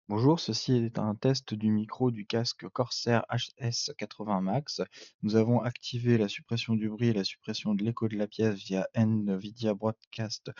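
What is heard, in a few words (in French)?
test micro casque corsair hs80 max avec reduction de bruit et suppression echo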